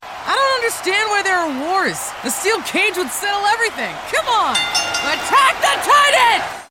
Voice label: female wrestler antagonist husky funny fight badass sassy dominant energetic